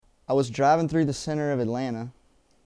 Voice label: Southern American English, I was driving through the center of Atlanta.